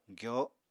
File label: "gyoo", Apatani